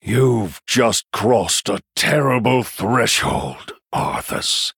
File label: Male Human Victory; Uther; Mission7 Victory